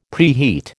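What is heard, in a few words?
"preheat", American English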